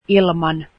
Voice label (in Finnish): finnish without, Finnish